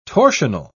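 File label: "torsional", English, British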